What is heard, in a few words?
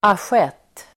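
"asjett", Swedish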